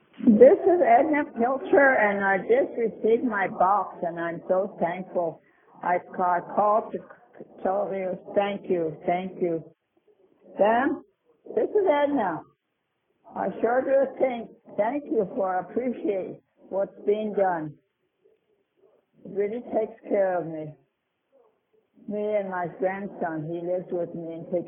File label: Voicemail